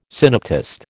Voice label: "synoptist", American